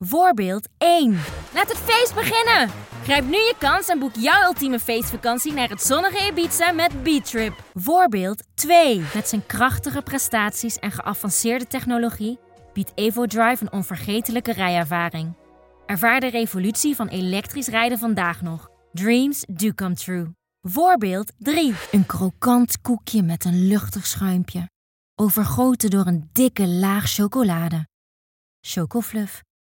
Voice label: Commercial